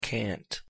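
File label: "cant", English pronunciation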